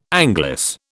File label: "anglice", British